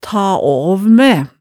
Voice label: "ta aav mæ", hemsedalsmaalet tale

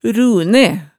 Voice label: "rune", hemsedalsmaalet, tale

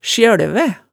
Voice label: "sjølvæ", hemsedalsmaalet

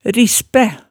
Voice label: "rispæ", hemsedalsmaalet, tale

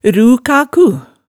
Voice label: "rukaku", hemsedalsmaalet, tale

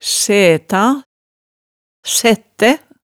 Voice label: "sæta", hemsedalsmaalet, tale

